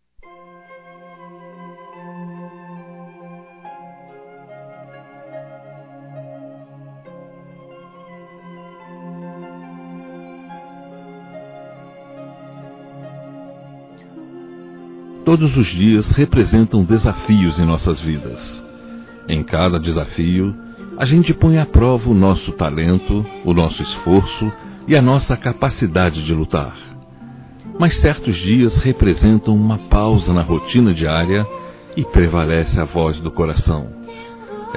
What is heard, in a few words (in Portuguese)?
Aniversário Chefe Masculino, Telemensagens; Empresarial; Telemensagens 2017